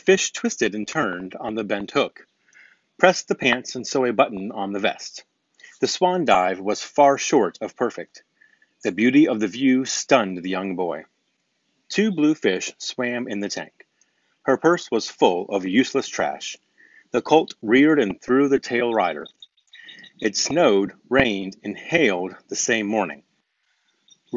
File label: wireless mic test airpods